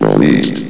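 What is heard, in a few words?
"released", male synthesized announcer voice; hlvox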